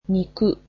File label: "meat", japanese